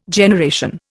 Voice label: "generation", Indian